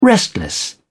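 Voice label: "restless", English, British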